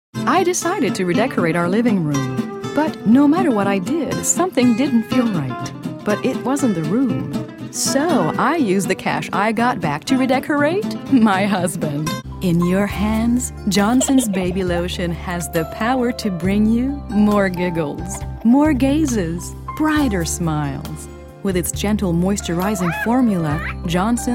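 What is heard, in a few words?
French Canadian English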